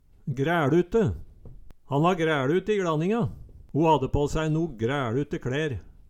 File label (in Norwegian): græLute, Numedalsmål